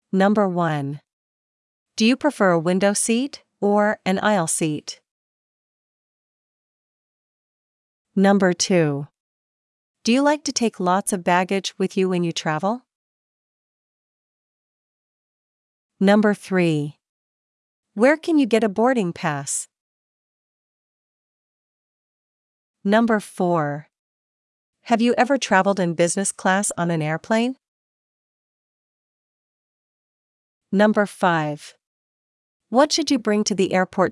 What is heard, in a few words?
USA spelling practice; air travel